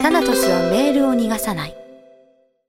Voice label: mail japanese